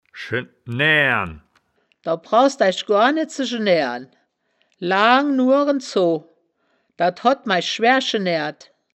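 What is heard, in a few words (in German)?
"sche-naeaeren", wittlicher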